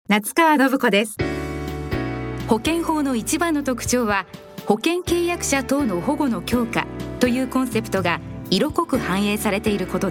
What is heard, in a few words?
female corporate video